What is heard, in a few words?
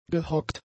"gehockt", German; adjectives